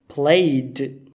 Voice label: "played", English